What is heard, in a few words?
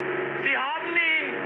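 German aircraft destroyed mood high, speech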